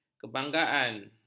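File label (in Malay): "kebanggaan", Malay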